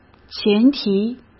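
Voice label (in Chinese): "前提", Mandarin Chinese; chinese-flashcards; hsk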